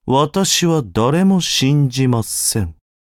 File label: levelup, ja; Cookie Run